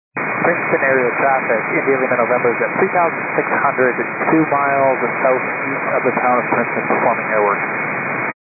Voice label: 122.925 MHz; scanner radio